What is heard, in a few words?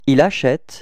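"acheter il", French; frans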